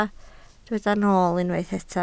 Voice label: "nool", Welsh